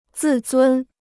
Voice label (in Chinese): "自尊", Chinese